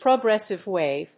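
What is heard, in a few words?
"progressive wave", English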